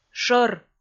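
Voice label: "шӧр", Komi, female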